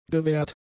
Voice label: "gewährt", german; adjectives

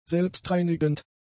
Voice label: "selbstreinigend", German; adjectives

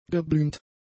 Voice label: "geblümt", German; adjectives

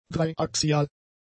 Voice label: "dreiaxial", German; adjectives

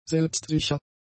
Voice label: "selbstsicher", German; adjectives

